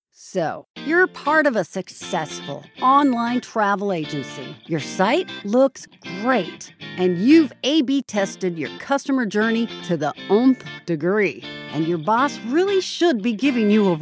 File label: upbeat vo